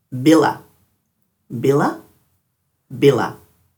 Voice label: "bila", Dhurga

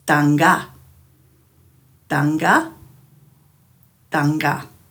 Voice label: "dhangga", Dhurga

